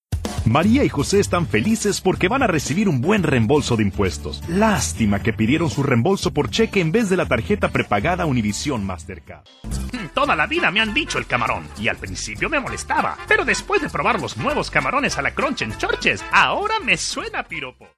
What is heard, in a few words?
Spanish Latin